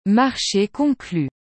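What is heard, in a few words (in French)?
"marche conclu", French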